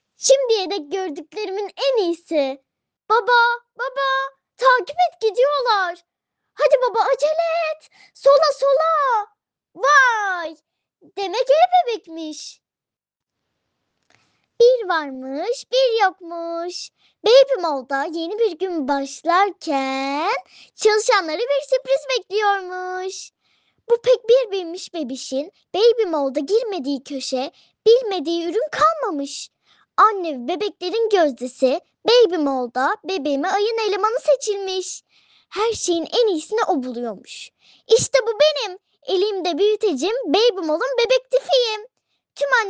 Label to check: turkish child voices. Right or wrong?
Right